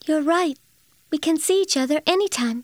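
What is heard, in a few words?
We can see anytime, English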